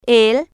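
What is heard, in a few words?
"el", Spanish, female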